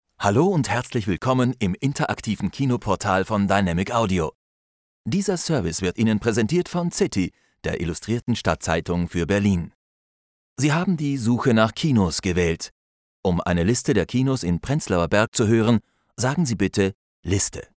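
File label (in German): telefon, deutsch männer; sprecher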